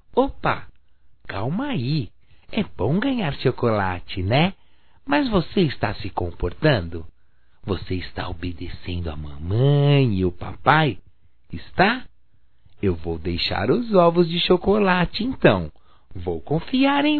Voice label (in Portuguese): PASCOA PARA AFILHADOS, masc